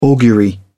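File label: "augury", British English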